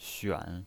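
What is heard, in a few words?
"xuan", pinyin voice, third tone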